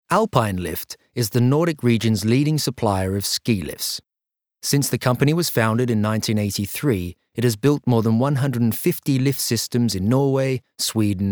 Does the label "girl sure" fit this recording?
No